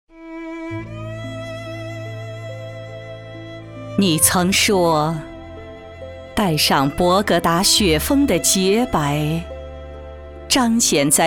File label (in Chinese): 女 独白 深情; 我从武汉来